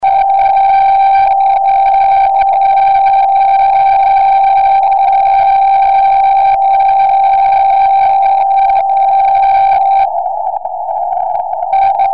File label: 70cm, tropo